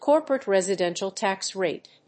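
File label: "corporate residential tax rate", English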